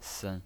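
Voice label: "sen", Mandarin Chinese pinyin voice, neutral tone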